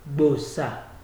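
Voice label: "gbosa", Nigerian Pidgin, male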